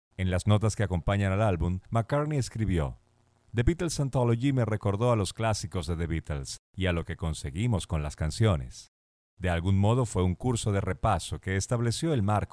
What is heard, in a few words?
SPANISH